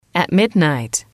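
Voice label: "midnight", English, female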